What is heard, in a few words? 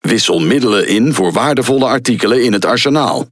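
Speech Tutorial, Dutch; World Of Warships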